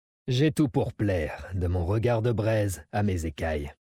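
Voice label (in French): French Male Flirt; Dracthyr; Plaire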